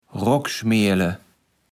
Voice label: "Rokkschmeele", Platt

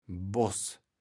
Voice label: "Boß", kaevels platt

